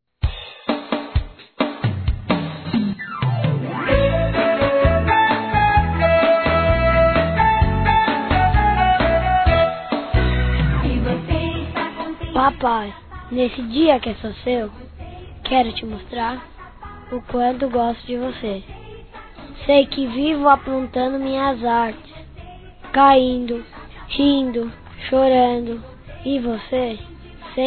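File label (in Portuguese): criança envia masculino, telemensagens dia dos pais; Telemensagens 2017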